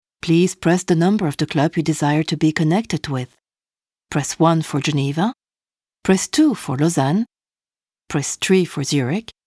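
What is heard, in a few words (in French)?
voix-off; casting de voix-off; taurus-studio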